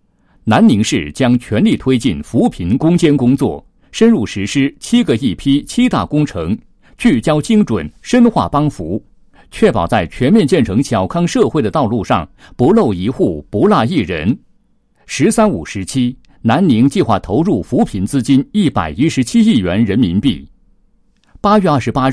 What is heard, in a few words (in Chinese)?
男-新闻; 近期新闻资讯